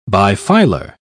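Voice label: "bifilar", English, British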